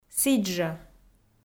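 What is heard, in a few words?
"сідзжӧ" Komi, female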